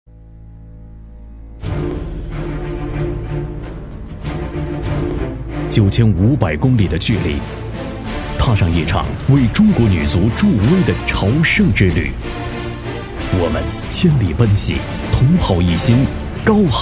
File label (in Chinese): 电视广告片; 球迷